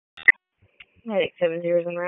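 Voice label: Public Safety Tactical, p25; wake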